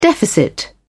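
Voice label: "deficit", British English, dictionary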